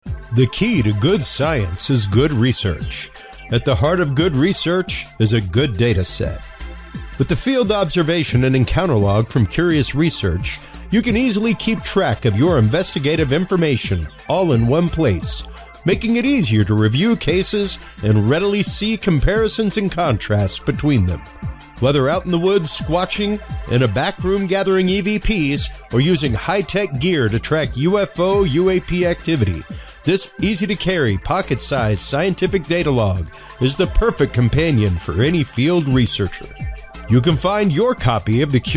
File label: ad spot; CURIOUS OBSERVATION LOG